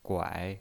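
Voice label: "guai", Mandarin Chinese, third tone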